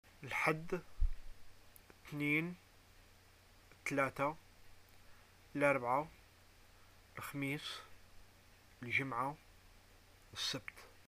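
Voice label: days, arabic, Algerian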